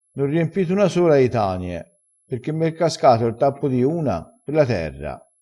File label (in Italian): "tania", vocabolario dialettale